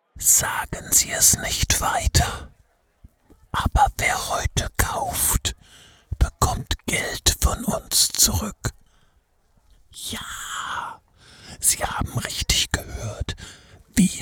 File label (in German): Fluesterwerbung